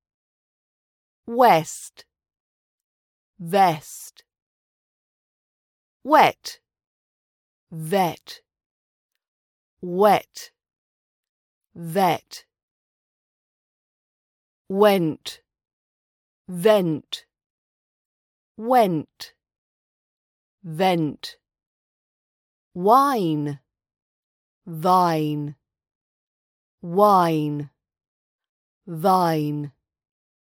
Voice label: v and w words rp British accent pronunciation practice